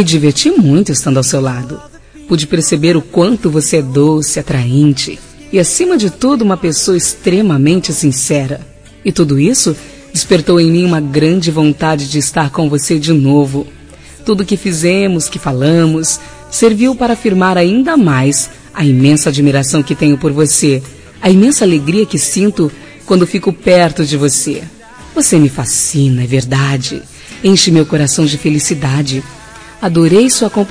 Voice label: ROM MOMENTOS ESPECIAIS FEM

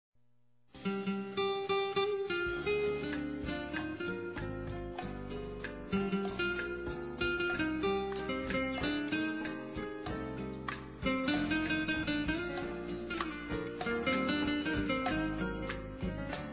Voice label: Bodas de Ouro Masculino, Telemensagens; Bodas e Casamento

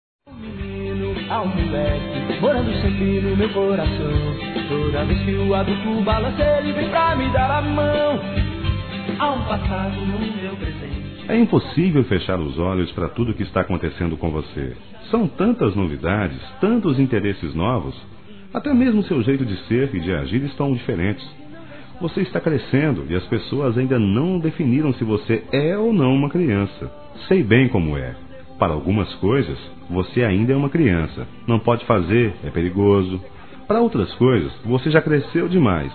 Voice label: Aniversário Adolescente MASCULINO

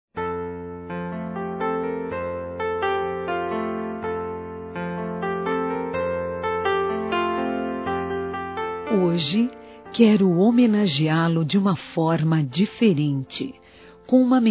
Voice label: COMPADRE, Telemensagens Aniversários; Aniv Familiar

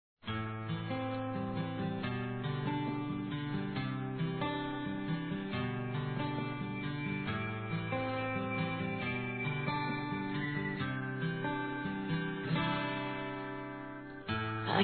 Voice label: ANIV. ROMANTICO F

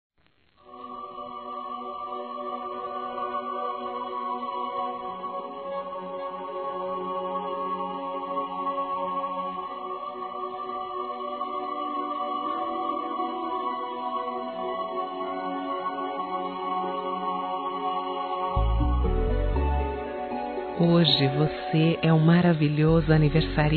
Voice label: ROMANTICA F

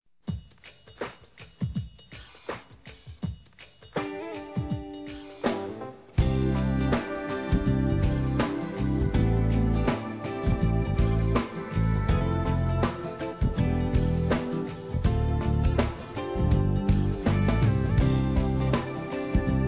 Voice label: PAQUERA, masc

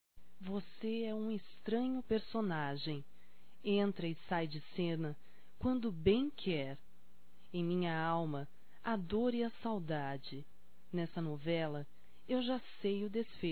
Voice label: Poesia Feminino; Amor; Telemensagens 2017

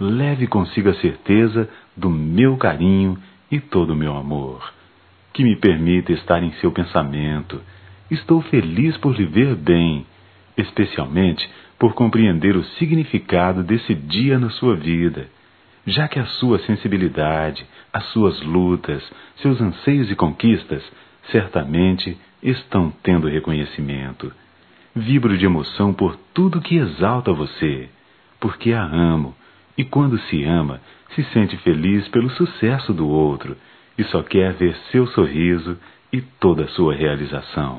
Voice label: Dia da Mulher Esposa, masc

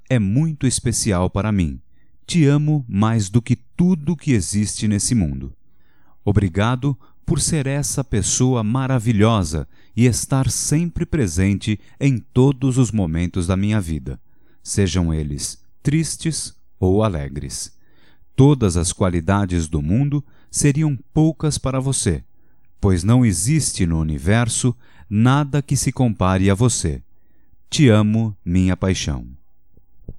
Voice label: TE AMO, Telemensagens, Amor, masc; Telemensagens 2017

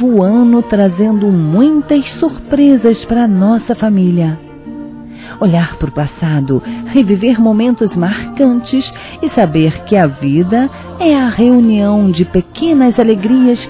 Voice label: Natal Romântico Esposo Feminino; Telemensagens; Natal e Ano novo

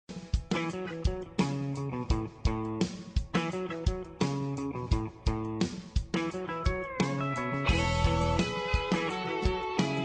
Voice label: Reconciliação Amizade Para Ele Feminino

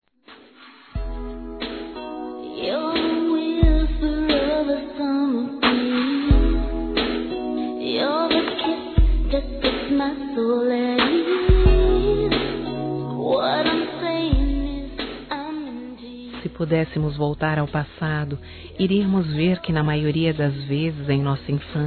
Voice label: IRMÃO F, Telemensagens Desculpas fem; Telemensagens 2017

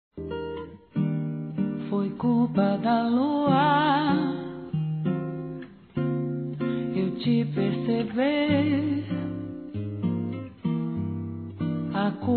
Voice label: CASADOS F; Dia Dos namorados; fem; Telemensagens 2017

